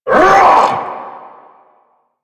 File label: Vocal Attack Short